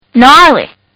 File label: Kid Says Gnarly; Talking; Voice